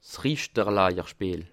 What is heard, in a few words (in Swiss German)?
"richterlajer", Alsace